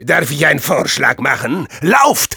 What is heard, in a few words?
taunts, de